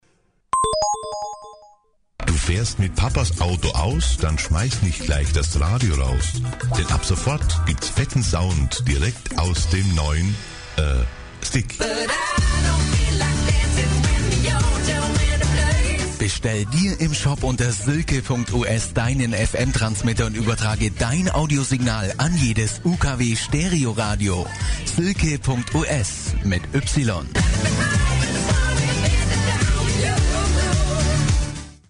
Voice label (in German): Werbung